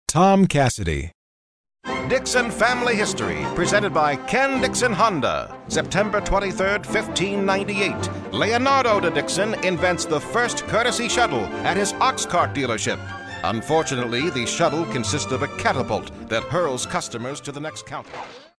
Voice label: NEWSREEL